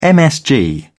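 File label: "msg", British English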